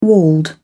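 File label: "walled", British English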